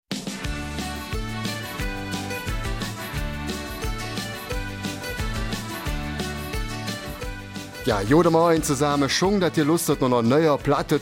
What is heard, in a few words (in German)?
Eifeler Mundart; Neuzugang